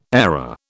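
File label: "airer", British English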